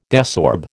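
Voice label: "desorb", American English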